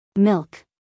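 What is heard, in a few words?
"milk", American English, female; everyone-can-use-english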